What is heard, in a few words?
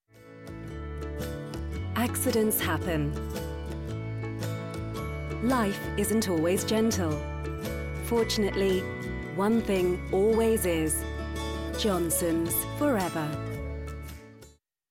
sponsorship ident; Johnsons